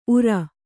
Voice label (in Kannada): "ಉರ", Kannada